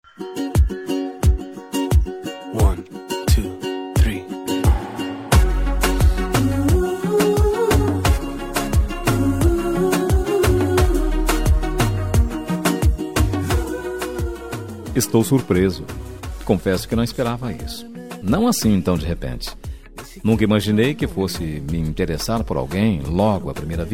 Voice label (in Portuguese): dia dos namorados paquera masculina; dia dos namorados conquista voz masculina